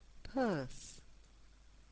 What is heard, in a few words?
"purse", English